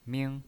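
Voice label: "ming", pinyin voice, Mandarin Chinese, first tone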